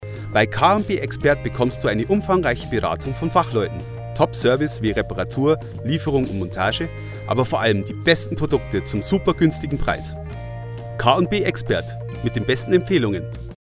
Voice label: Werbung Reminder

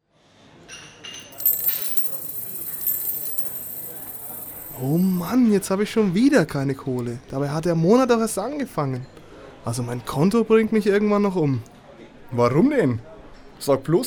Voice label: Werbung Sparkasse

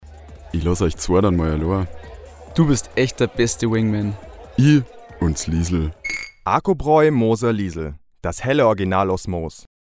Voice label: Werbung Reminder; Acrobraeu

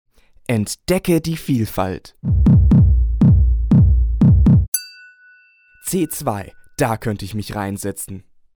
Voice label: Werbung Reminder

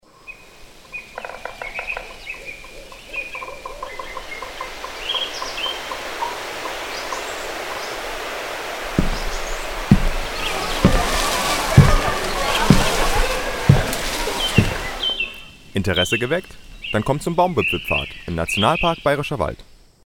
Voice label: Werbung; Erlebnis Akademie

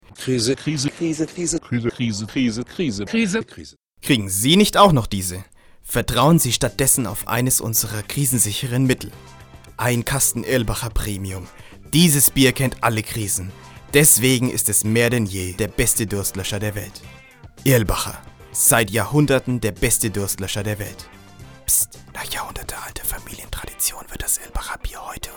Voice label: Werbung; Irlbacher

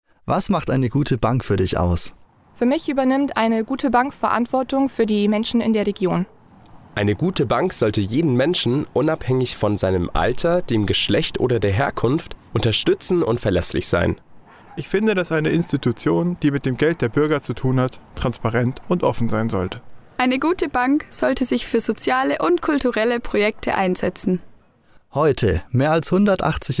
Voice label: Werbung Sparkasse

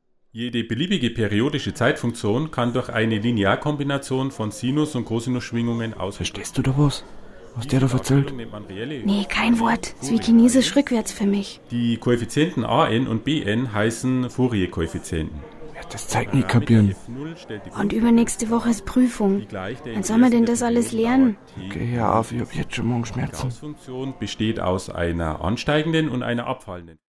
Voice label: Werbung; Elypso

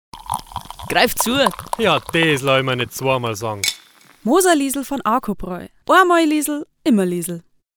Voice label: Werbung Reminder; Arcobraeu

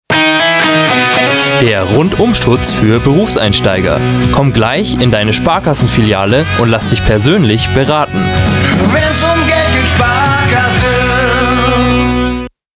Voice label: Werbung Reminder; Sparkasse

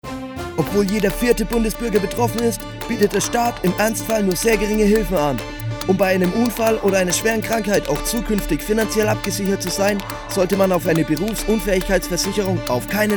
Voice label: Werbung

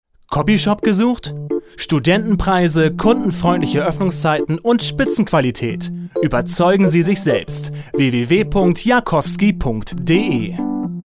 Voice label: Werbung Reminder

